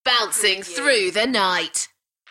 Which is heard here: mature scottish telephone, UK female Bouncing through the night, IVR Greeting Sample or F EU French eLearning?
UK female Bouncing through the night